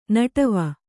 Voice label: "ನಡುವು", Kannada